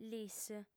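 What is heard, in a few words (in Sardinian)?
"lis", Sardinian, female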